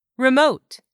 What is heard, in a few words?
"REMOTE", English; 3000 words